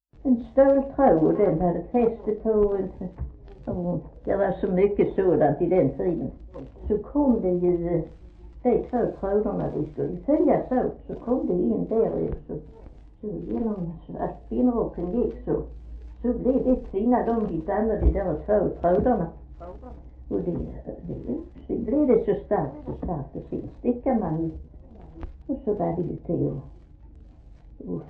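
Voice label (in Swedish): Fleninge Skåne, dialekter; Folkminnen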